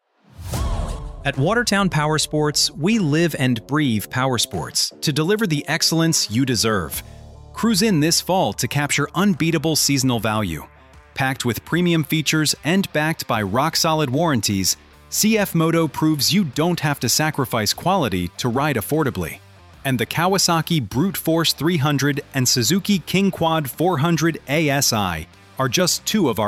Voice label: MALE